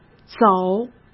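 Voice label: "走", Mandarin Chinese; chinese-flashcards; hsk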